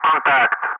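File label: "contact", English, Wingman; Ka-50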